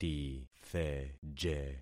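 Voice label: "titheje", concatenated syllables; Affixing experiment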